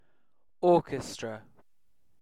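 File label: "orchestra", British English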